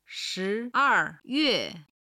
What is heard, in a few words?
"shi2er4yue4", Chinese